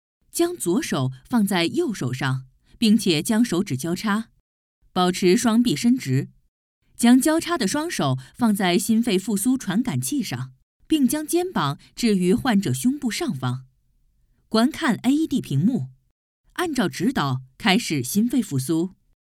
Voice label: female Chinese smooth, commercial, recited; 25-45